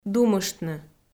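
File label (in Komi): "думыштны", Komi, female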